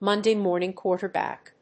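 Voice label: "Monday morning quarterback", English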